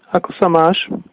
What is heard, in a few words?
how are you, slovak